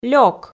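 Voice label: "лёк", Komi, female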